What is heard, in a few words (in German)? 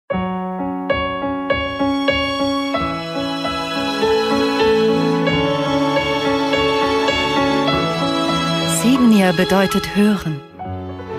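Werbung, Sanft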